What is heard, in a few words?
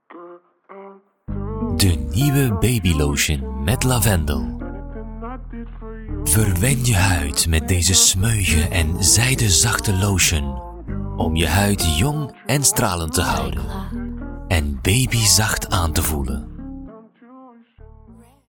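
Dutch, Commercial, Flemish; GoLocalise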